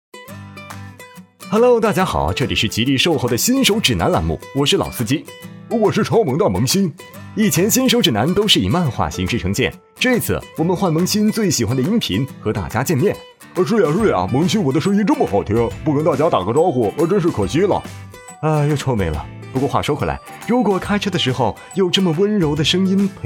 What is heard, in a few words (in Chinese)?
男男 自然 角色 轻松; 飞碟; 吉利汽车